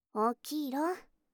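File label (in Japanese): "起きろ", Japanese; 目覚ましボイス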